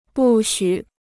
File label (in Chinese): "不许", Chinese